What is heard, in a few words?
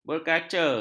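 "berkaca", Malay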